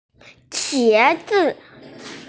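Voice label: "qiezi", Mandarin Chinese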